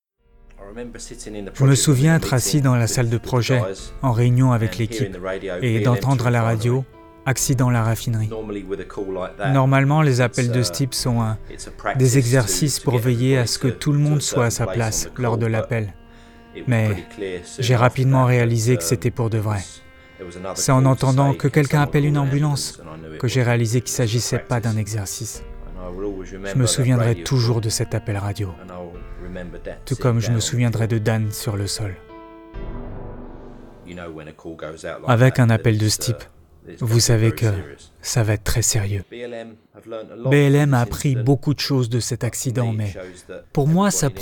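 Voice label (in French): Français Voice over Documentaire Ton solennel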